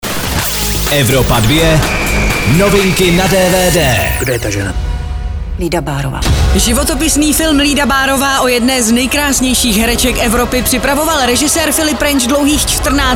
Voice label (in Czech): DVD NEWS; evropa2; Lída Baarová